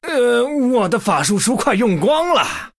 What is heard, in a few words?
Male Human Low Cards, zh